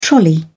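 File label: "trolley", British English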